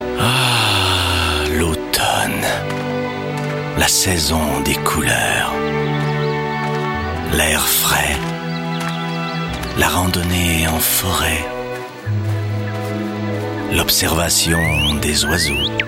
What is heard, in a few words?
Fre commercial